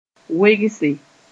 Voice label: "wegse", moore

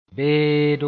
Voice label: "beedo", moore

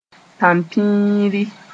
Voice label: "tãmpĩiri", moore

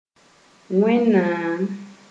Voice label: "Wẽnnaam", Moore

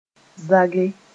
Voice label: "zage", moore

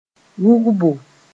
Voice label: "wʋgbo", moore; weaving

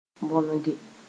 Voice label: "bõnege", moore

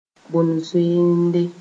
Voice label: "bõn-zoeende", moore

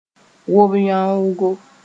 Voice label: "wob-yãoogo", moore

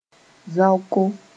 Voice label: "zaoko", moore

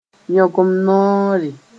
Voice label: "yõgem noore", moore

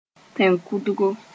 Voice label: "Tẽnkʋdgo", Moore

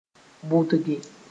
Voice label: "bʋdge", Moore; abandon the right way

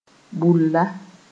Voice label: "bula", moore

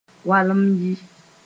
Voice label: "walem n yi", Mooré

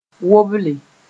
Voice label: "woble", moore

